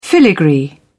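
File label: "filigree", English, British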